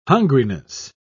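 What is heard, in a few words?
"hungriness", English, British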